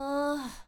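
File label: Girl Annoyed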